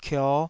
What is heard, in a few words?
"kio5", taiwanese syllables